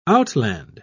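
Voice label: "outland", British English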